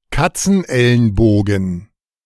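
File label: "Katzenelnbogen", German; IPA: /kat͡sn̩ˈʔɛlnˌboːɡn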